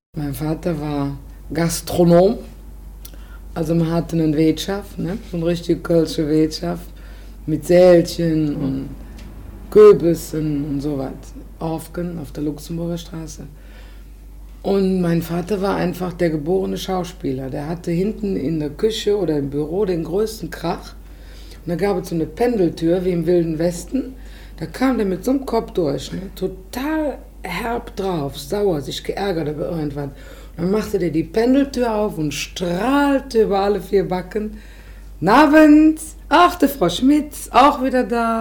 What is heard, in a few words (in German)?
regiolekt; sprache